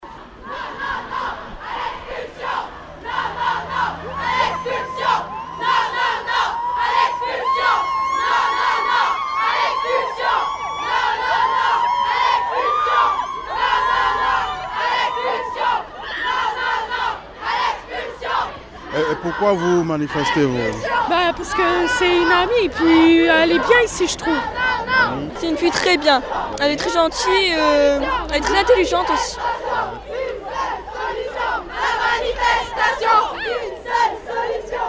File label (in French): TEMOIGNAGES ELEVES; expulsion